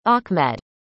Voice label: "achmed", arabic; indonesian boy names